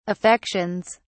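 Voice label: "affections", English, uk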